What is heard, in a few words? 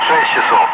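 Russian speech, Ka-50 Wingman; DCS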